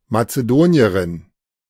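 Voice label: "Mazedonierin", German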